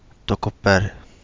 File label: "Togo Bale", Kapingamarangi